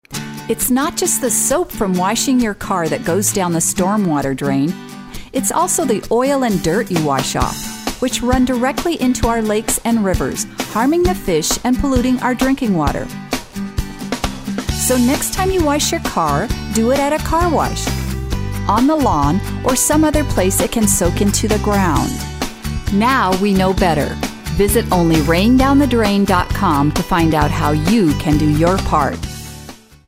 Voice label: Radio; CarWash